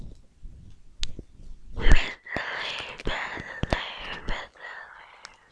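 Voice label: "battery", spoken word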